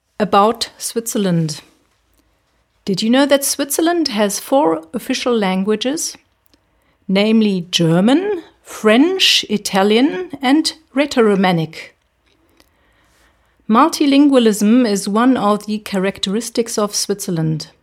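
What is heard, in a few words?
English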